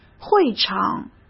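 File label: "会场", Mandarin Chinese; hsk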